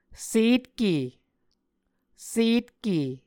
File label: "setke", Muscogee